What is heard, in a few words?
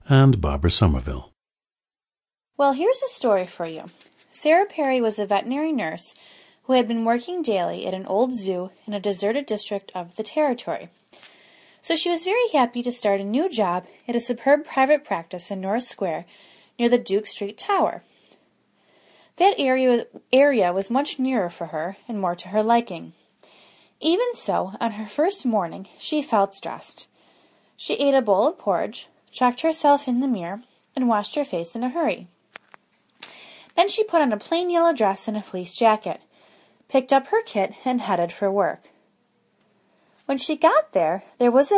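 new york, International Dialects of English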